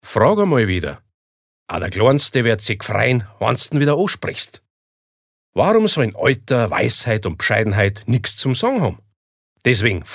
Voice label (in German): Dialekt, Bayerisch